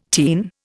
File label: "teen", Indian